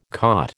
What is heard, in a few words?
"caught", American